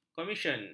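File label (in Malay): "komisen", Malay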